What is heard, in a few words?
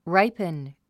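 "ripen", English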